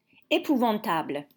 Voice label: "Épouvantables", French